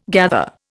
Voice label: "gather", Indian

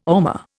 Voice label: "ormer", Indian

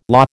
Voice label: "lah", American

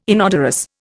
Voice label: "inodorous", Indian

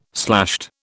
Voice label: "slashed", British

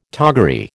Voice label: "toggery", American

